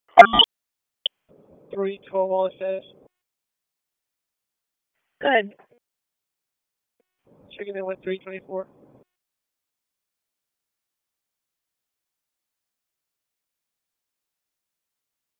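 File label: Raleigh Police Northeast District Dispatch, p25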